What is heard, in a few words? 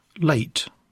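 "late", English; poitiers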